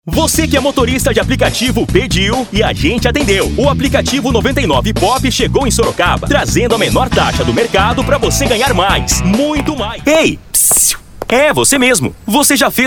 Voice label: locução brasil

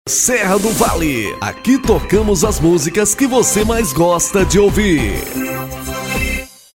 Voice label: locutor gravações

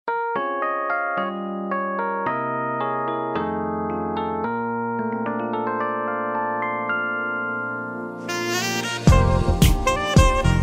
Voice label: locutor msg

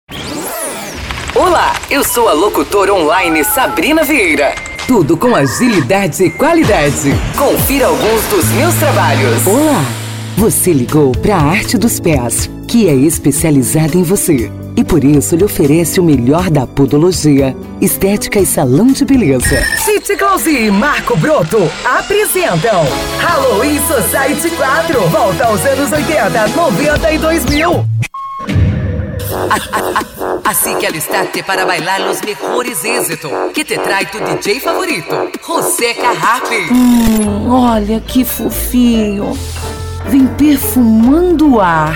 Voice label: locução jovem padrão coloquial

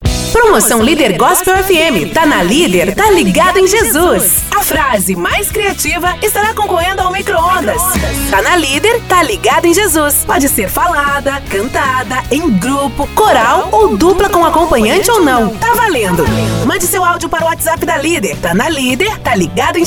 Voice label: voz impacto grave vinheta para radio gospel; web radio; comercial

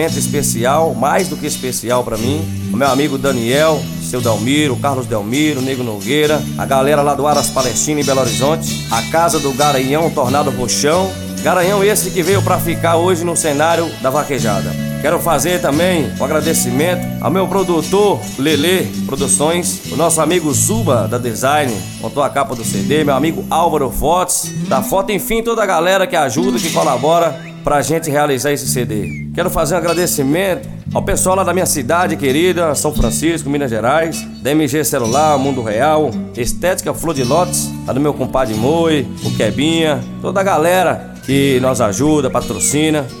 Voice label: Locutor agradecimentos

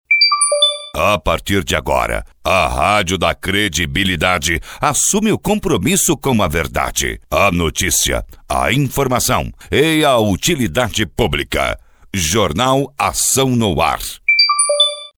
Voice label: vinhetas voz locutor vinhetas de radio

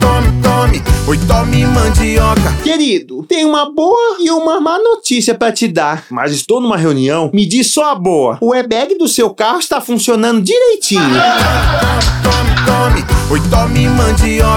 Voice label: spot piada; rei da mandioca

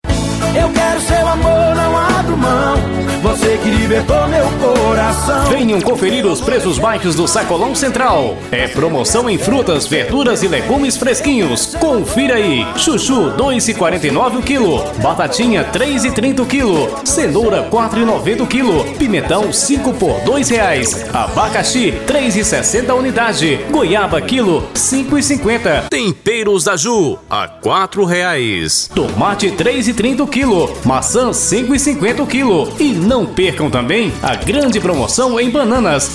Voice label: spot; sacolao central

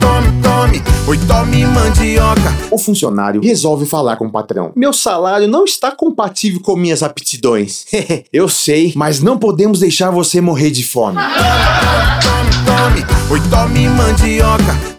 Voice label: spot piada; rei da mandioca

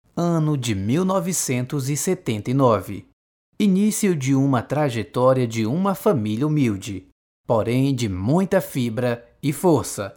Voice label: voz locutor locucao; silvander tomates

